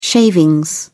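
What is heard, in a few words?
"shavings", British English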